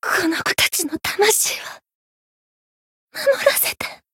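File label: retire, Japanese; ilulu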